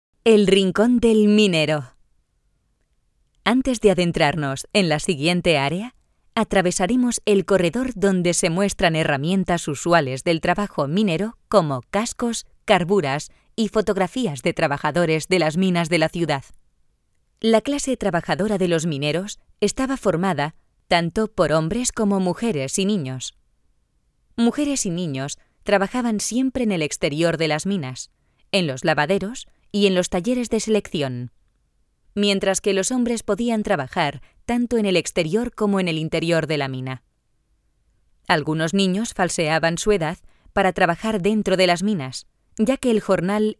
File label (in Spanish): audioguia; audioguia museo de la mineria; esp